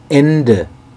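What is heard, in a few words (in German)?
"ende", German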